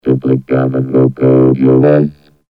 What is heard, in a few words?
loop vocode your voice